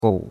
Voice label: "gou3", chinois; Cours-de-Chinois

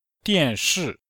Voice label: "dian4shi4", chinois; Cours de Chinois

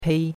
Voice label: "pei1", chinois; Cours-de-Chinois

